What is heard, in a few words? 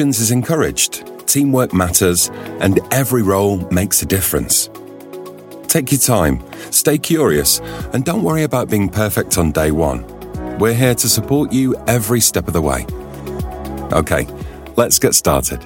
training video